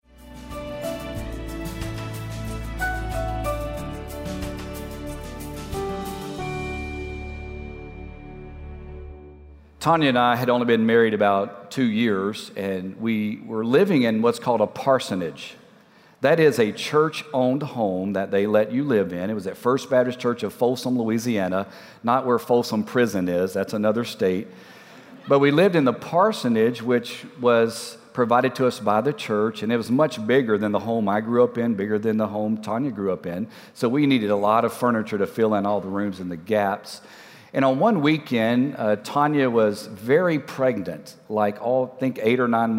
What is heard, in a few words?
Sermon English